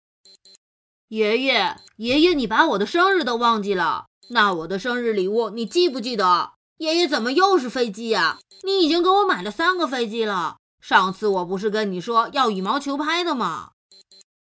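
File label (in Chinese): 男童 情景对白 8-12岁; 中文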